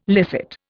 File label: "licit", Indian